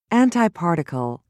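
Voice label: "antiparticle", British English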